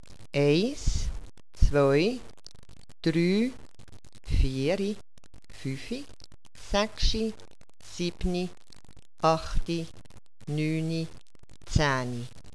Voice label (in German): counting swiss de be; languages; words n phrases